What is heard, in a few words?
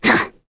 Nia Vox Landing, voices heroes en; kingsraid-audio